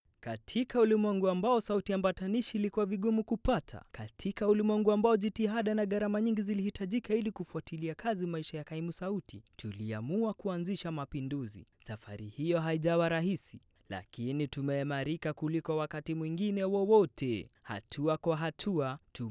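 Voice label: Swahili, male, narration; voice-over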